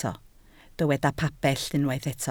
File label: "pabell", Welsh